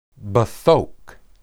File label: "bethok", Hebrew